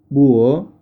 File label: spanish